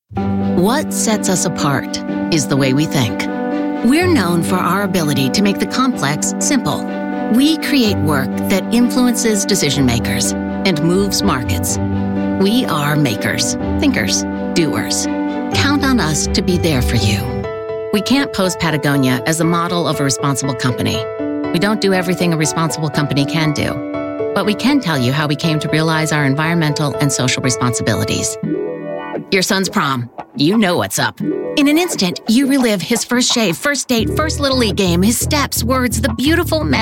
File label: Coporate Narration Voice Over